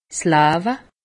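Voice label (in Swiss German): "lawe", Alsatian; medecine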